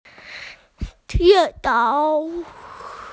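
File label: "she tou" (tongue), Chinese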